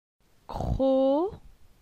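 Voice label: "Cro", French; phonetique; la syllabe o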